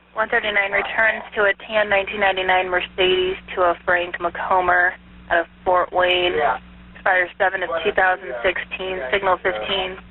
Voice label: police radio